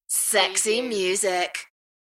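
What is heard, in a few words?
British female Sexy music; beanstalk audio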